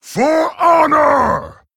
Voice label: Male Orc Attack